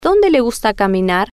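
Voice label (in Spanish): donde le gusta caminar, Spanish, female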